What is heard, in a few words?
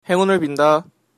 good luck, Korean